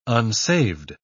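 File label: "unsaved", English, British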